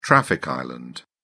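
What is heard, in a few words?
"traffic island", British English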